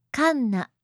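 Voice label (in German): "Kanna", japanische Mädchennamen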